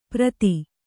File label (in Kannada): "ಪ್ರತಿ", Kannada